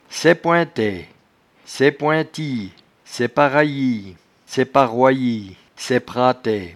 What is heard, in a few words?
"saippointaie", patois jura